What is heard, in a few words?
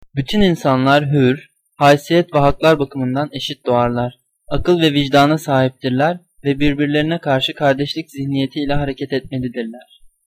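udhr tr, Turkish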